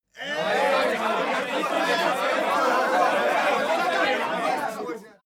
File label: talk upset loud short